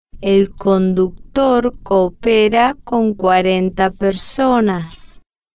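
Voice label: el conductor coopera con cuarenta personas, Spanish, female, slow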